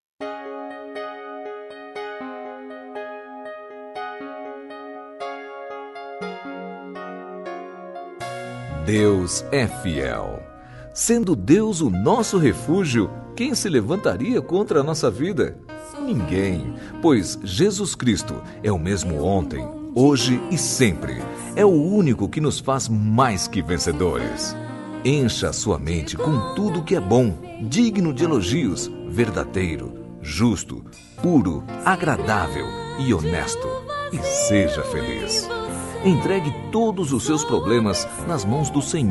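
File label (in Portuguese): Evangélica Otimismo pra Amizade, male, telemensagens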